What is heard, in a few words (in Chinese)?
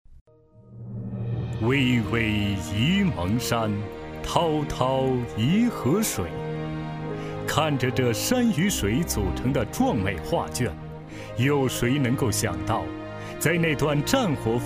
男 城市宣传片; 沂蒙